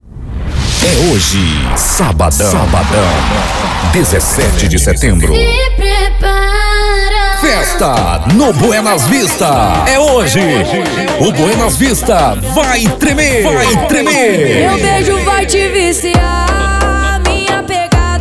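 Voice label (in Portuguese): Voz Jovem, Masculino, Português - Brasileiro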